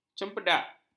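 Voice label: "cempedak", Malay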